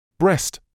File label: "breast", medical english uk; brest